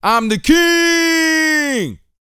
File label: BOAST F; Vocal Planet; R&B Dance